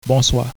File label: good evening, haitian creole